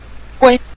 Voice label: "gui", Mandarin; 玫瑰念珠; méi gui; rosary (Catholic prayer beads)

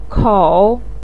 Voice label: "kou3", Chinese pinyin voice

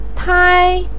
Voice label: "tai1", pinyin

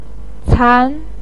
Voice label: "can2", Chinese pinyin voice

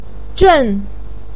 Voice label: "zhèn", voice pinyin

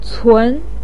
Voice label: "cun2", Chinese pinyin voice

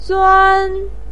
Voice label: "zuān", voice pinyin

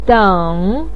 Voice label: "deng3", Chinese pinyin voice

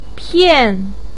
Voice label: "pian4", pinyin; 猵

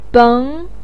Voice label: "beng2", Chinese pinyin voice

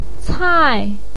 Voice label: "cai4", Chinese pinyin voice

